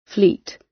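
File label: "fleet" British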